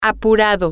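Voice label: rushed, Spanish, female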